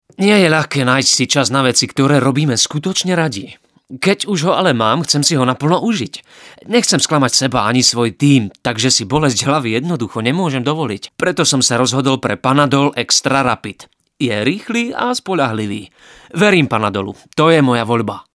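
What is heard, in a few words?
Male Slovak commercial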